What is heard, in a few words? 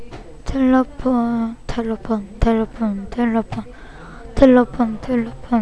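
"telephone", spoken word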